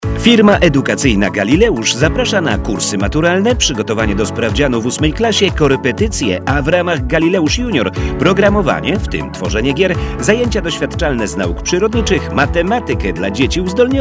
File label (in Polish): reklama; msmedia